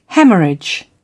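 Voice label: "hemorrhage", British English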